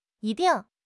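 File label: "yi1 ding4", Chinese; HSK3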